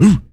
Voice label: vox fx; E-Lab; X Static Goldmine 2; akai